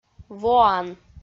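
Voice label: "воан", Komi, female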